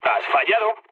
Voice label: bomb failure, mood low, speech; War Thunder